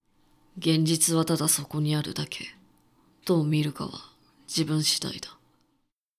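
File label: male; 現実はただそこにあるだけ。どう見るかは自分次第だ。; 低音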